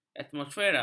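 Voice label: "atmosfera", Malay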